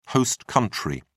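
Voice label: "host country", British English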